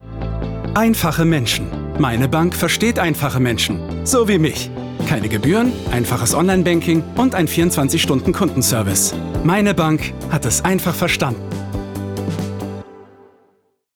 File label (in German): Commercial; BANK